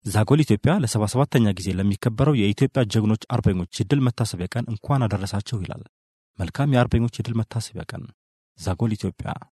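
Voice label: Amharic, male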